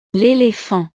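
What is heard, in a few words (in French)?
"lelephant", French; el elefante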